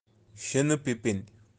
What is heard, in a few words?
"sheeni pipin", kashmiri; birds of kashmir